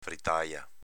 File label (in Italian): "fritaja", dialetto veneto; s.f. frittata